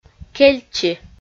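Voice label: "кельчи", Komi, female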